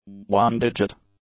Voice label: "one-digit", English; adjectives